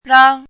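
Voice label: "rang1" Mandarin Chinese, high level tone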